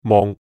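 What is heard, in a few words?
"mong6", Cantonese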